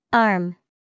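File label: "arm", English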